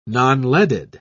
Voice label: "nonleaded", en uk

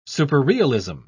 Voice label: "superrealism", en uk

